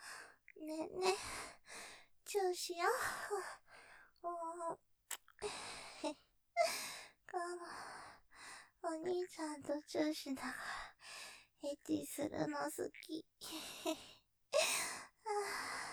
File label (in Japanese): ねぇ、ちゅーしよ☆チューしながらエッチ好き, 余韻, ASMR; ステレオ; ループで楽しむ！シェフの気まぐれ抜き抜きコース